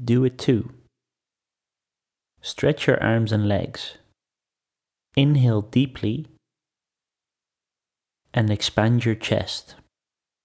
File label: Progressive Relaxation with Forest Visualisation